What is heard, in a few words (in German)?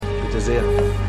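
Männl Barista, Stimmproben; LO SVU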